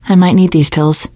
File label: take pills, survivor voice teen girl; source engine; left 4 dead 2